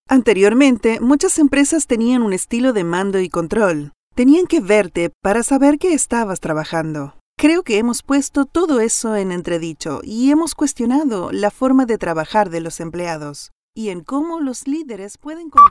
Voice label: DOBLAJE SYNC, Latin Spanish Neutral Female, Character, Cartoon, Dubbing Lip Sync; MICROSOFT